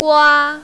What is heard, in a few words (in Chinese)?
"gua1", mandarin, female